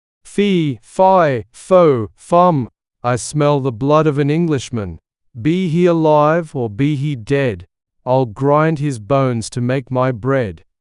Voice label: Fee Fie Foe Fum male aus

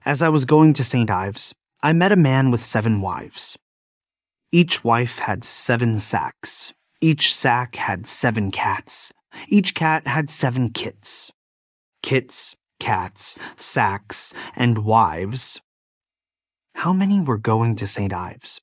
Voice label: male US; As I Was Going to St. Ives

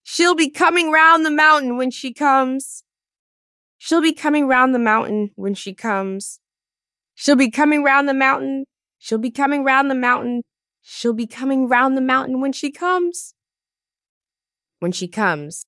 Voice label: fem us; Shell Be Comin Round the Mountain

